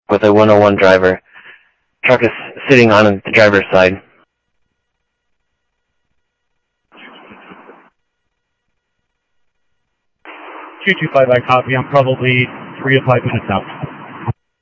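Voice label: Sheriff West County, scanner audio trunk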